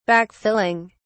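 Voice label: "backfilling", British English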